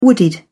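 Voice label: "wooded", British English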